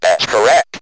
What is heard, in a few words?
"that's correct", Speak & Math Speech